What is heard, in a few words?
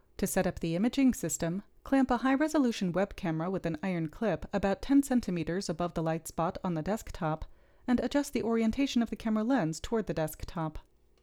Gong Voiceover